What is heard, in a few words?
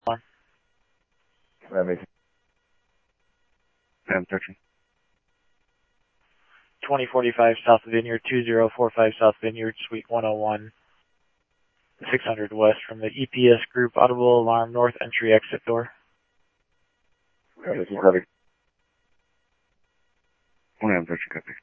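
Mesa Police Department, scanner audio trunk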